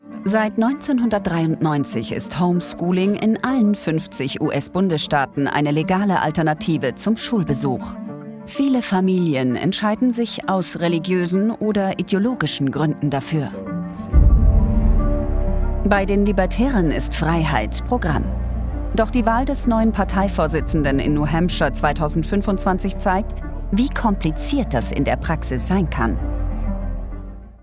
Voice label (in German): kommentar doku; zdfinfo; libertaere homeschooling